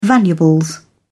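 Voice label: "valuables", British English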